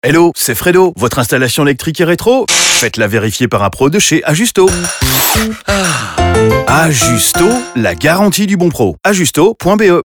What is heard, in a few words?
Radio FR 10s; Ajusto